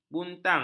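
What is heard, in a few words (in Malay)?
"buntang", Malay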